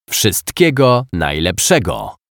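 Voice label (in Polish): "Wszystkiego najlepszego", Polish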